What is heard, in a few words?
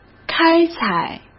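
"开采", Mandarin Chinese; hsk; chinese flashcards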